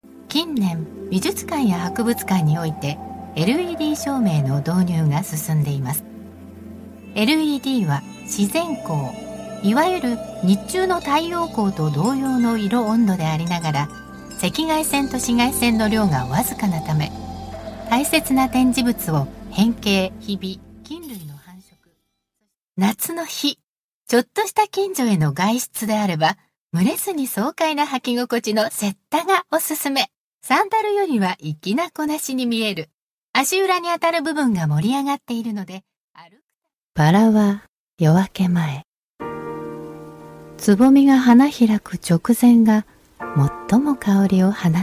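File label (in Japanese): 日本語ナレーター, 独特なロートーン; 独特なロートーンはまさにオンリーワン。; GAIKEI Models & Narrators; 様々な人生経験と朗読公演参加などの探求心が読みの実績にも現れています。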